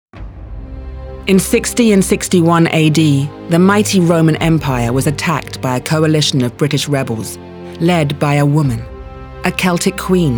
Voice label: Narratives

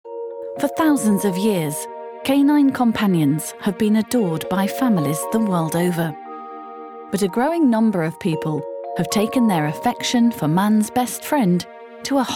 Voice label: Documentary Read

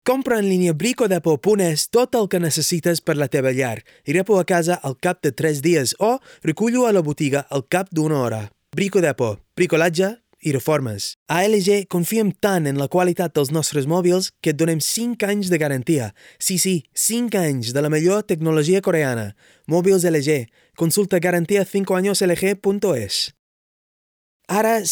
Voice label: Catalan

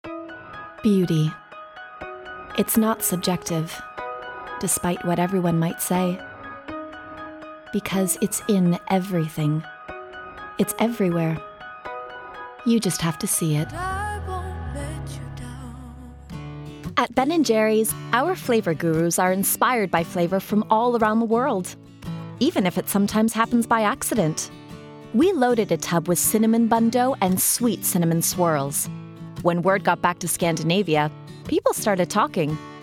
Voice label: Commercial

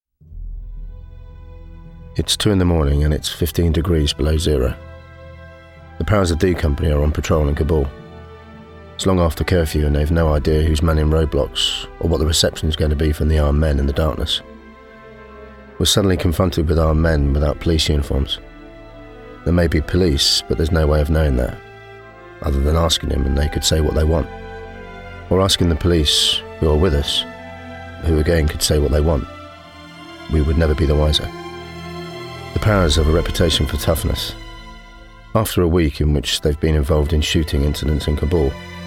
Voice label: Documentary

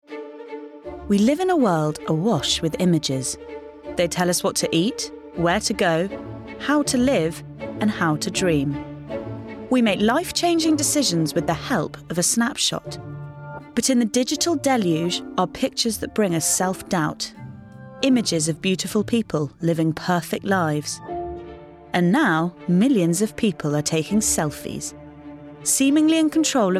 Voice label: Documentary

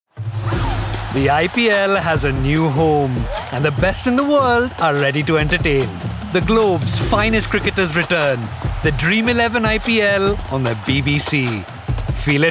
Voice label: Promo; Netflix's Criminal UK

